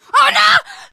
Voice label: fall short, teengirl; survivor voice